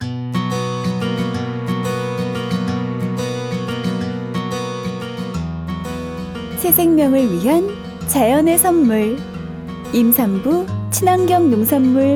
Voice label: 홍보멘트

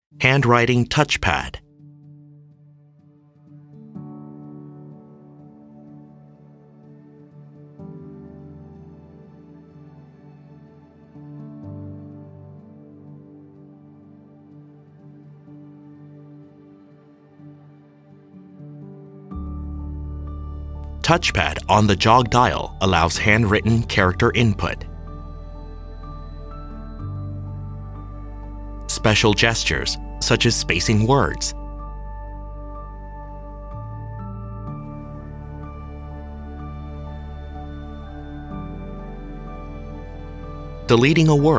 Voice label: 영어 남 기본톤; 제네시스 Infotainment How To Handwriting Touch Pad

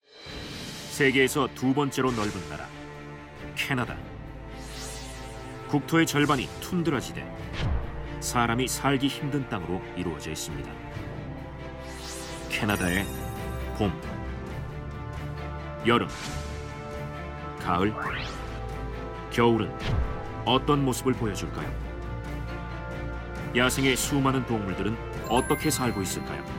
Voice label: 자연다큐 예고 신뢰감 차분한 30대

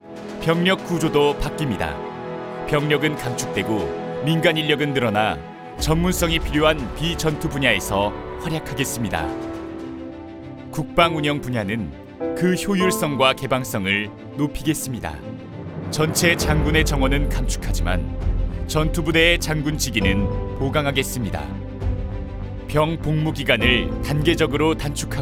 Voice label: 홍보 힘있고 신뢰감 있는

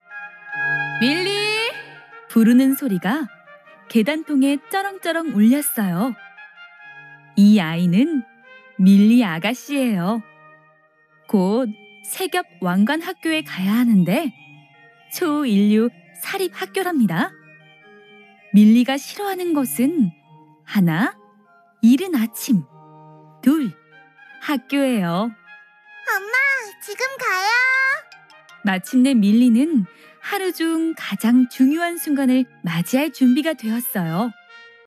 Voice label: narration 밝고 상냥한 동화 나레이션

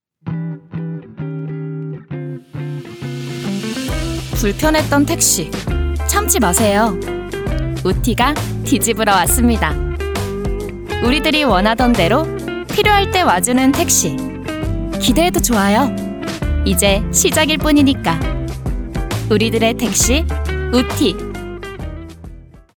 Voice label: 밝은 자연스러운; 우티

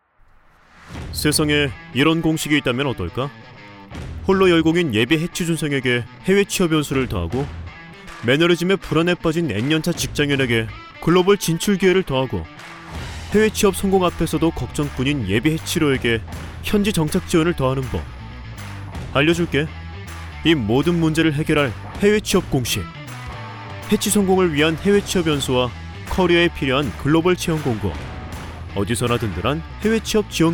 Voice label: 광고 자신감있는

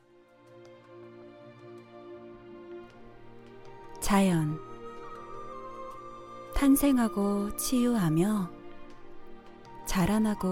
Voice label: 샘플 기업 부드러운 편안한 잔잔한

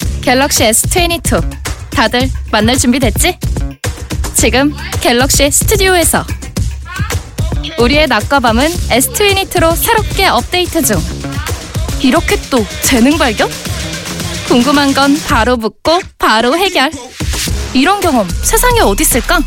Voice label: 당당한 자신감있는 MZ느낌; Galaxy Studio

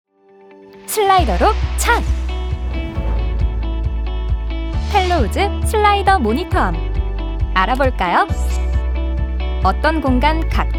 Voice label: 밝고 친절한 톤

